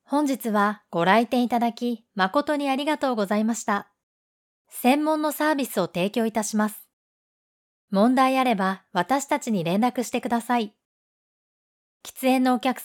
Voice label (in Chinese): 外籍日语女 自然缓慢温柔